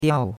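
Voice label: "diao4", Chinese; Cours-de-Chinois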